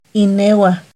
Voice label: "inehuah", Náhuatl; INALI; UTexas; NAHUATLAHTOLLI

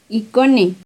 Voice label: "iconeuh", Náhuatl; su hija(o); NAHUATLAHTOLLI

